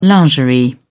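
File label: "lingerie", British English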